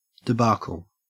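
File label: "debacle", Australian English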